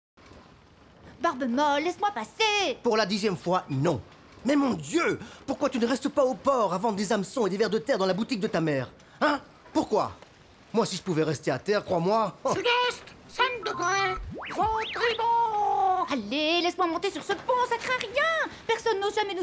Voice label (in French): Narration